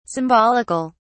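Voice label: "symbolic(al)", British English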